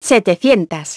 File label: "seven hundred", feminine; iOS 4